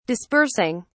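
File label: "dispersing", English, British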